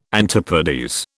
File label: "antipodes", British